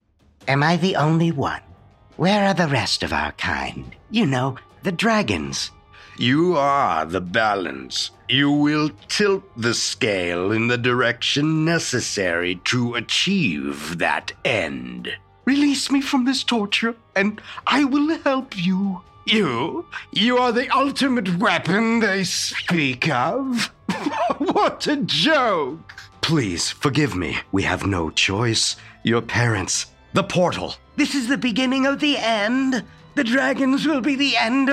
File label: Character